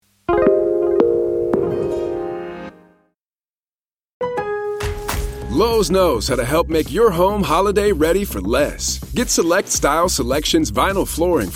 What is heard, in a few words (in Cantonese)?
cantonese